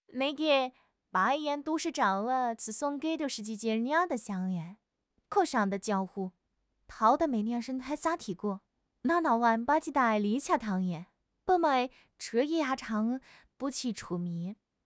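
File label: "mei3", Chinese; HSK; HSK2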